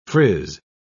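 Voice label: "friz", British English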